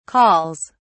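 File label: "calls", British English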